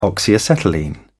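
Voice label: "oxyacetylene", British English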